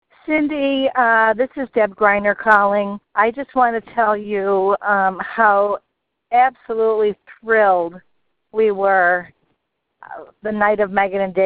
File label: voicemail